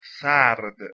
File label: "sard", Persian